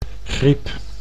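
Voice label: "griep", Dutch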